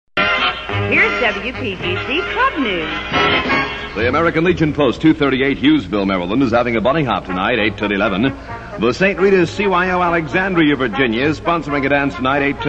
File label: promo; club news